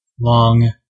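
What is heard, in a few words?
"long", US English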